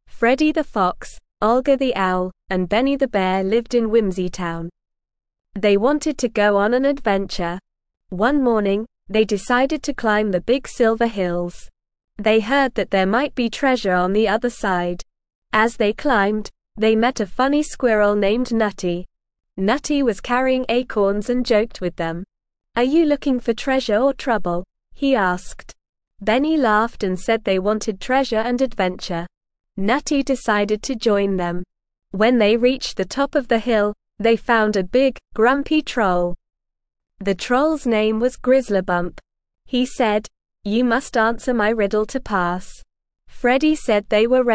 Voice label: ESL Short Stories for Kids Beginner NORMAL Reading; The Journey Beyond the Silver Hills